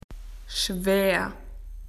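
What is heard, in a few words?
"heavy", Australian English; PictureDictionary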